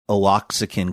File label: mispronouncing oaxacan